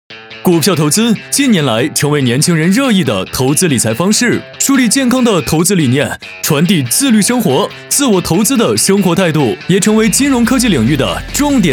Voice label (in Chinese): 男 品牌宣传; Keep; 腾讯自选股